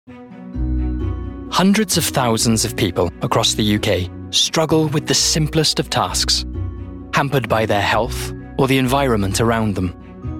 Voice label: Narration

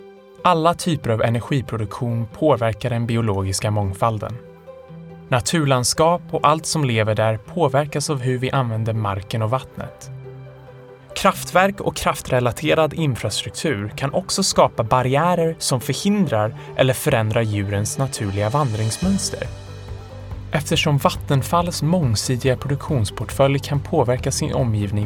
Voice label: Swedish

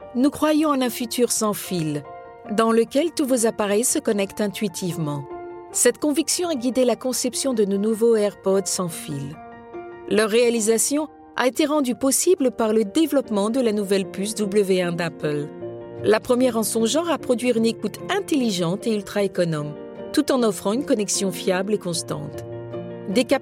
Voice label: French

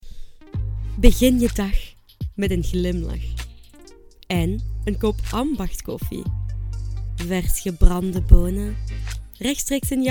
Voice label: Flemish

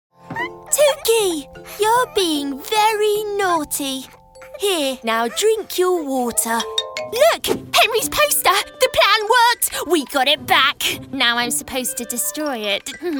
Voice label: animation

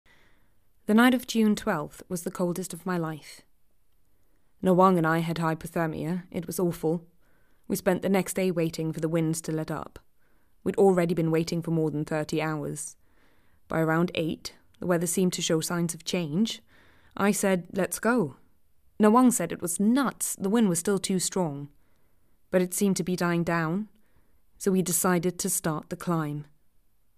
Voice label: Narration English

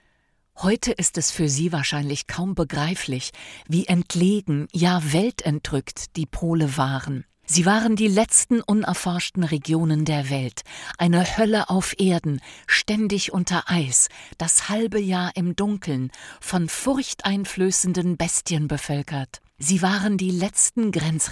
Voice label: Home Studio Read

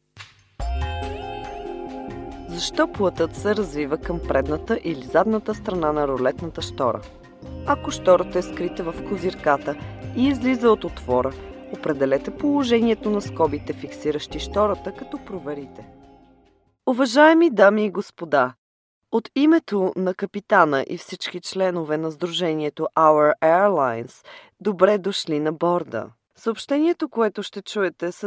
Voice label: Bulgarian; Eastern European

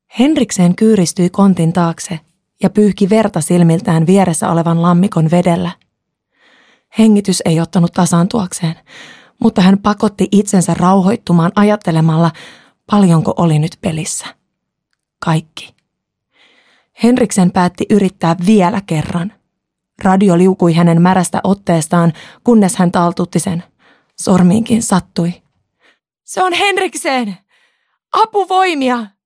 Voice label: Finnish

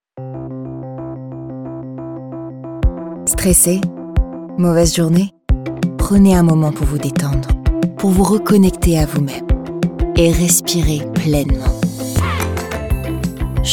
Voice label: French, Female, 20s-40s, voiceover; European

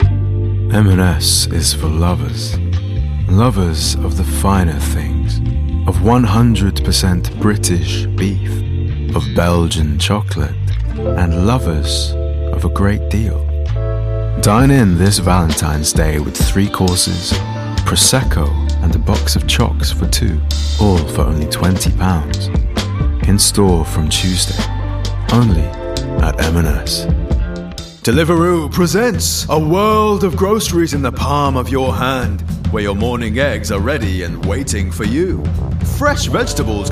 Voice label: Commercial; regional accents

